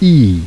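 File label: unrounded close front i; lausanne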